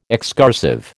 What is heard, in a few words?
"excursive", American